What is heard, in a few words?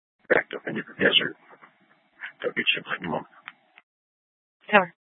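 police dispatch, Scottsdale PD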